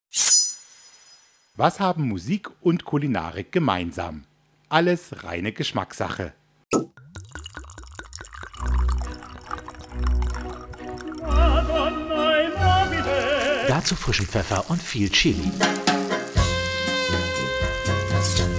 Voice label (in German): Trailer; Reine Geschmacksache